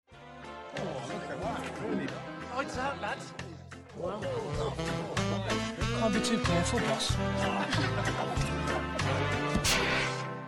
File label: male english west midlands accent christmas commercial; aldi